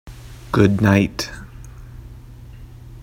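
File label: pronunciation English "good night"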